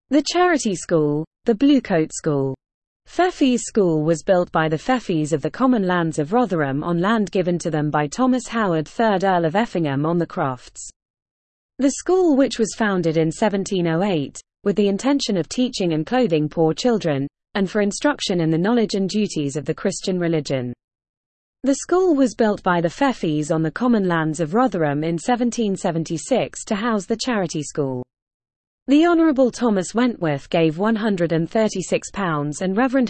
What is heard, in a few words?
Female; The Charity School